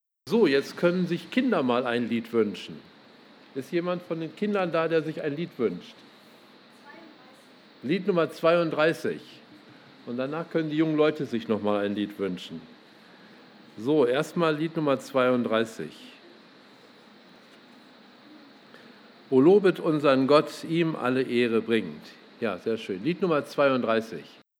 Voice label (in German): Ansage; Lagerversammlung 2018